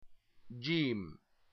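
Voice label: "jim", Arabic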